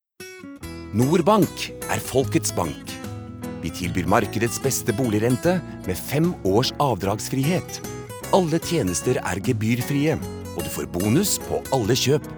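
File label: Norwegian commercial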